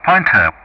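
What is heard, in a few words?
"Pointer", English; Ka-50; Player Callsign; DCS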